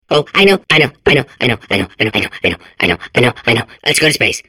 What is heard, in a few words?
babble; Portal 2